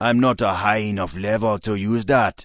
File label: Troll Male error cant equip level